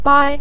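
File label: "bai" neutral tone, Mandarin Chinese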